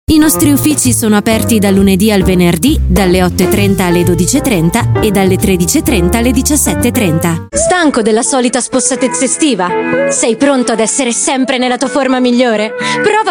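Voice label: Italian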